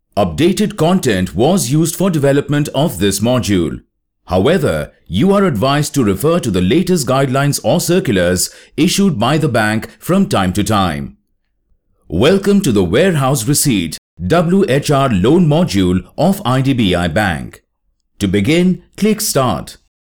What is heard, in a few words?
eLearning Finance; IDBI